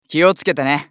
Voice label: スカウト男 インストールトラップ

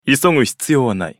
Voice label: ウォーロック男 移動

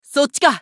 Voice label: ファイター男 移動

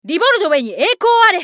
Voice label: ソルジャー女 移動; リボルドウェソルジャー

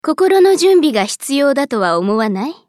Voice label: ウィザード女 移動

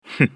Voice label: 男･選択; ウォーロック

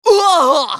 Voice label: スカウト男 ダウン

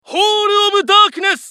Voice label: ウィザード男･ホールオブダークネス

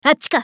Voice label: ファイター女 移動

